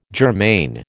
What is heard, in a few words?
"germane", American